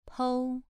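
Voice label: "pou", tone 2, pronunciation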